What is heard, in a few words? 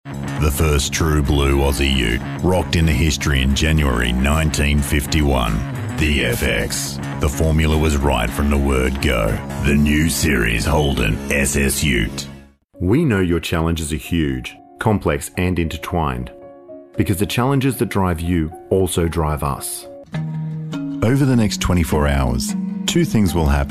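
English Australian, male, commercial; voice demos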